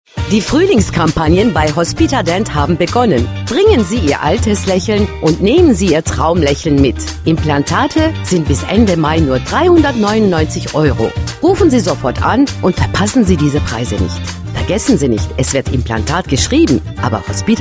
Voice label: germany voice over female commercial

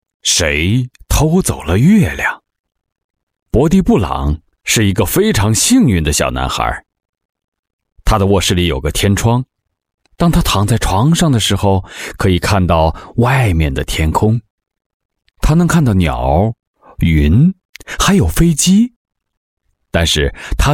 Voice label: chinese voice over male audiobook

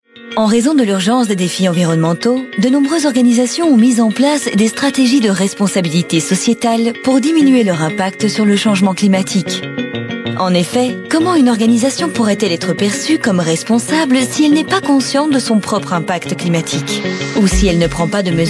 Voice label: french voice over female narrator

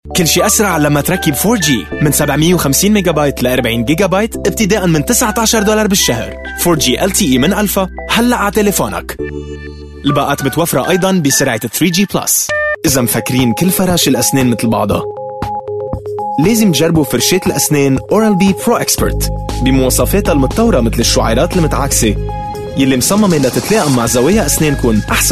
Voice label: arabic voice over male commercial

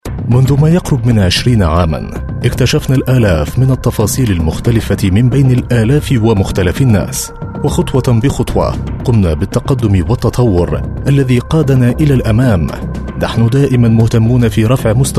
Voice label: arabic voice over male narrator

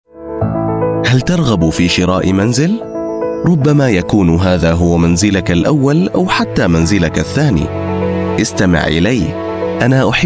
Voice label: arabic voice over male elearning

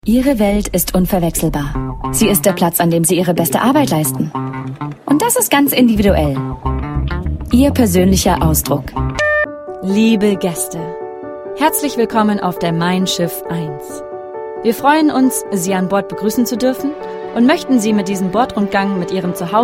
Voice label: germany voice over female commercial

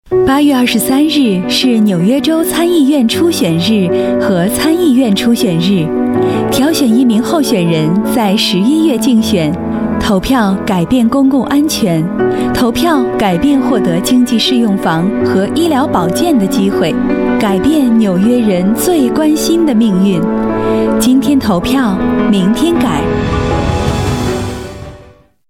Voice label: chinese voice over female narrator

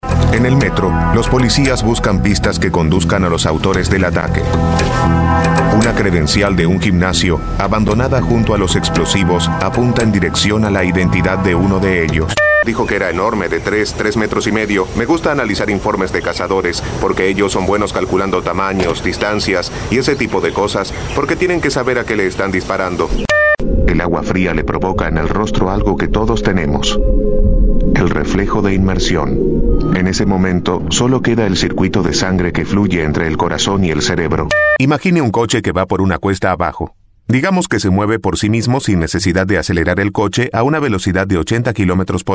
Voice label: spanish voice over male narrator

